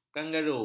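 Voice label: "kanggaru", Malay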